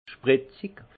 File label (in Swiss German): "spritzig", alsace; vin; pointu